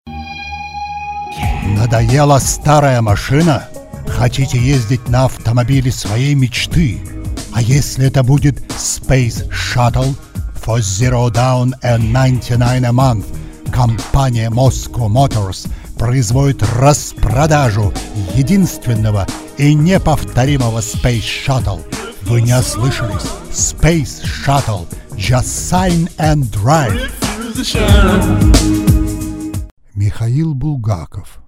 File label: VOICE OVER RUSSIAN